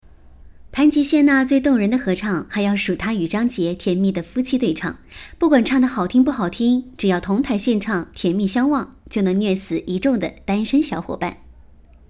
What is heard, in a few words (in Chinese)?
演绎类